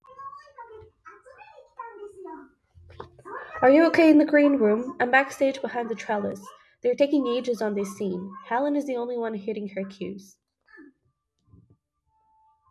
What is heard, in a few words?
noisy reference